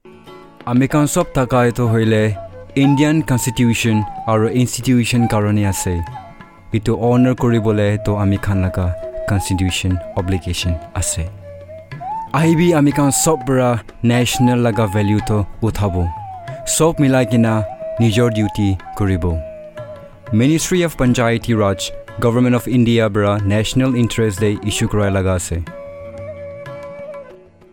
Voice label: promo nagamese